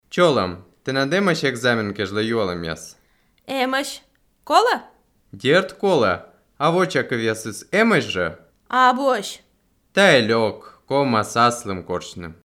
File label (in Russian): dialog